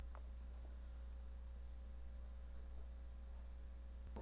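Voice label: "ring", spoken word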